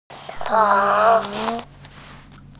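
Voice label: "cao3", Mandarin Chinese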